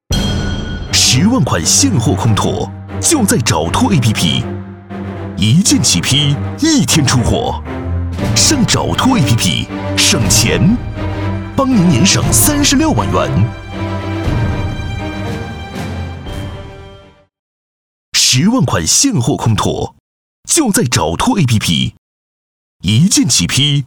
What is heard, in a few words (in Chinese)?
男17 app（大气浑厚）; 优质全能; 找托app